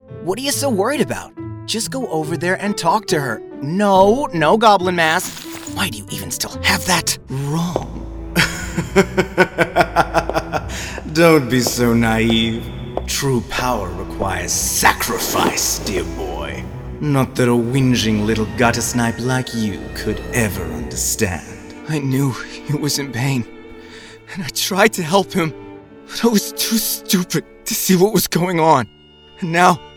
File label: Character